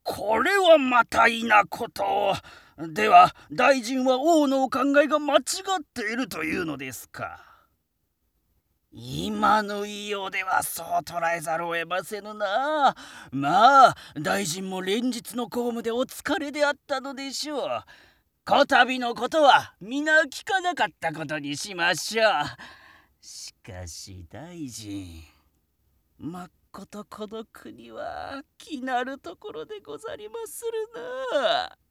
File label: male